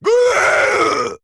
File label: Male Trogg Death